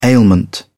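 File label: "ailment", British English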